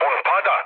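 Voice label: Serbian aircraft destroyed mood low, speech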